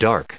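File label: "dark", US English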